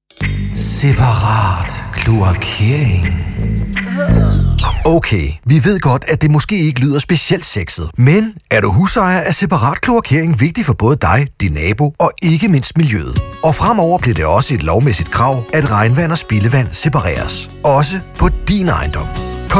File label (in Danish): radiospot om separatkloakering; mariagerfjord vand